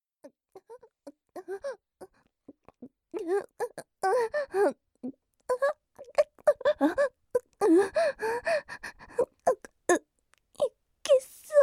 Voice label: aggressive

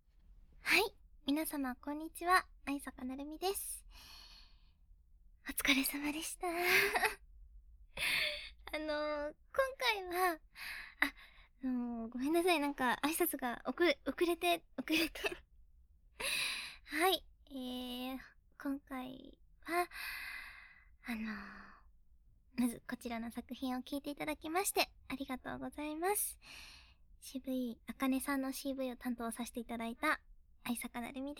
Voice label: FREE TALK

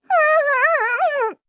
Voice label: h voice; おっぱい図鑑

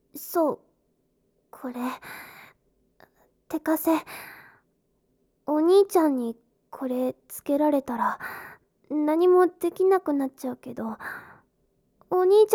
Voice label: tsundere, ASMR

